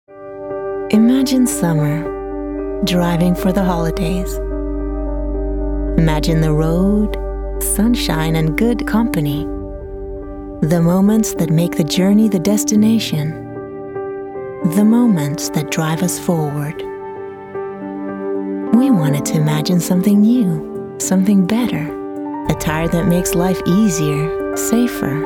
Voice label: english automotive

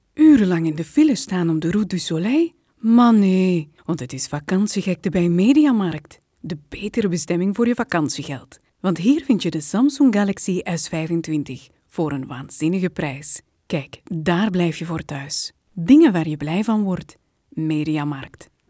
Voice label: flemish promos

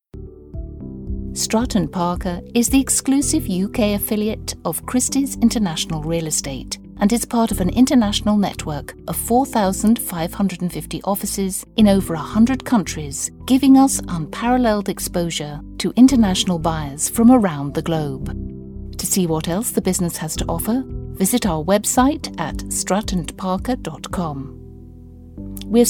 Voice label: english british ivr

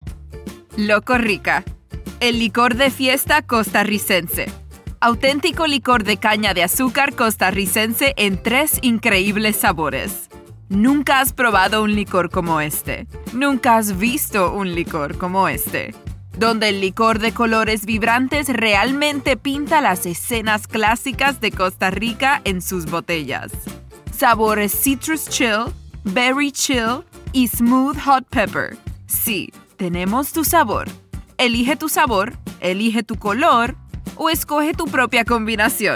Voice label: spanish latin american explainer videos

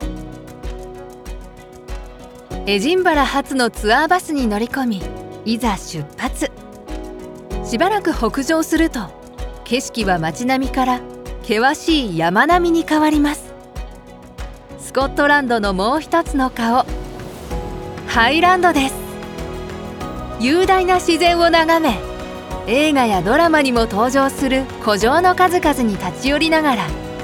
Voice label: japanese tv shows

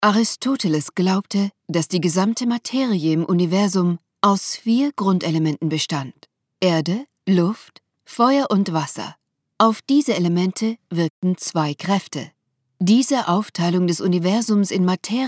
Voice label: german commercial

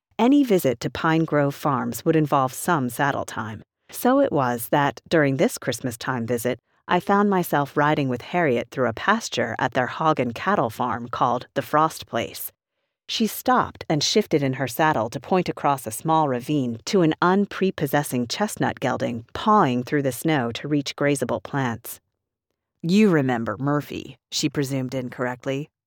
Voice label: english american audiobooks

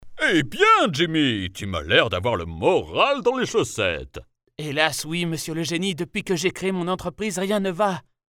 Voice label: french animation

